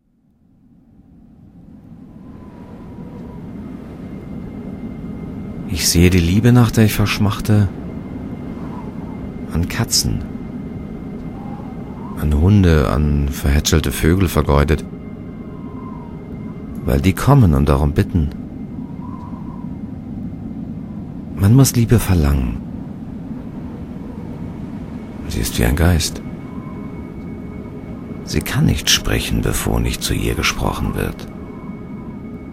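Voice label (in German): Hörspiel, deutsch Männer; sprecher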